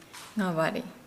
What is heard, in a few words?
Nukuoro; bent slightly